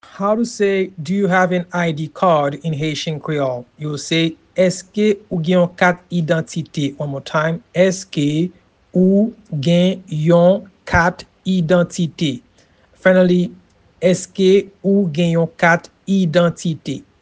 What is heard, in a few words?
Do you have an ID card in Haitian Creole Eske ou gen yon kat idantite pronunciation